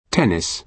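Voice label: "tennis", British English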